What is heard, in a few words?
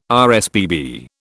"RSPB", British